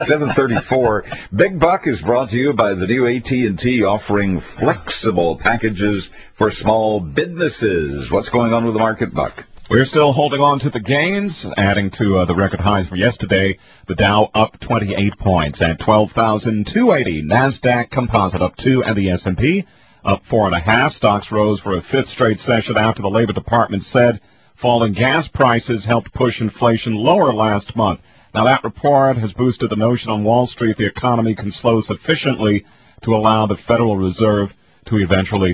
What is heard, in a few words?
Reading commercial; AT&T; KSFO